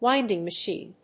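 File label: "WINDING MACHINE", English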